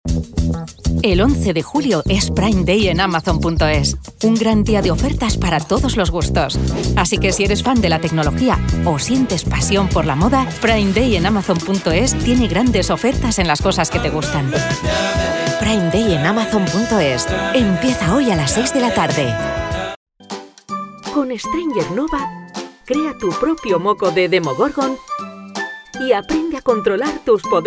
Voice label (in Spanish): spanish castilian commercial